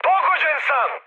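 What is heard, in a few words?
Serbian aircraft destroyed mood high; War Thunder; speech srb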